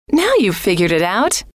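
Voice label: now you've figured it out, female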